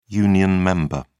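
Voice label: "union member", British English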